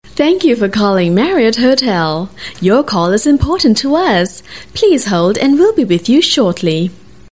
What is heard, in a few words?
Asian English Message On Hold